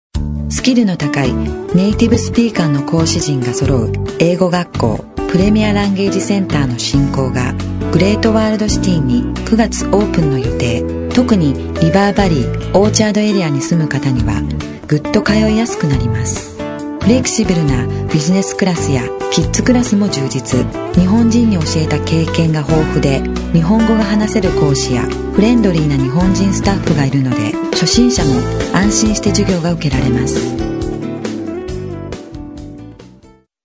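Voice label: Japanese female voice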